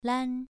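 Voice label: "lan", Mandarin Chinese, second tone, pronunciation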